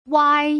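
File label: "wai", Putonghua; 零聲母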